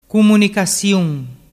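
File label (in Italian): "cumunicasiun", dialect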